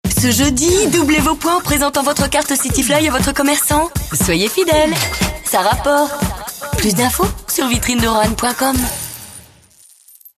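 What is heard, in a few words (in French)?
spot, spots radio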